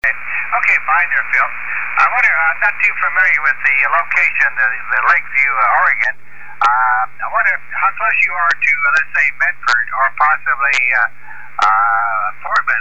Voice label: 20M SSB; ADSP2